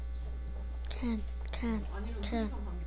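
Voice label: "can", spoken word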